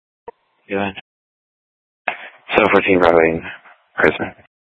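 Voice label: Sheriff, Parks, Sheriff radio scanner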